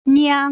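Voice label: "niang", Putonghua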